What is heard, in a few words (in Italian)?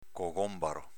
"cogonbaro", dialetto veneto